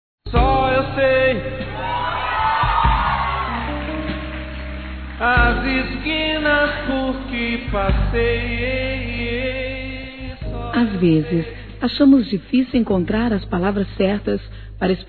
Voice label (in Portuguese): Aniversário Comadre Feminino; Aniversários; Aniv Familiar